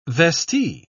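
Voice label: "vestee", British English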